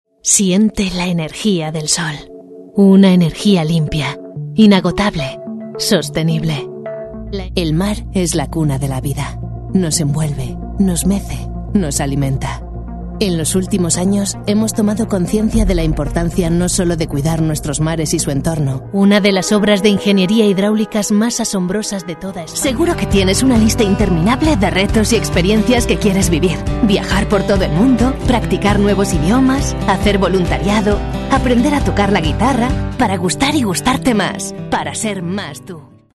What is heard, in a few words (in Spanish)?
MUJER